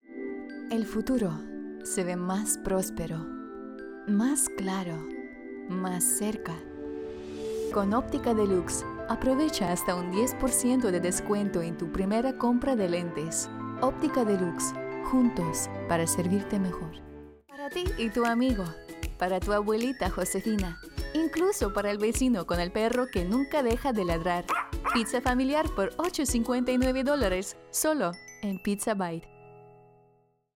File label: spanish commercial; Russian Armenian; Uzbekistan